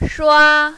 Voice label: "shua1", mandarin, female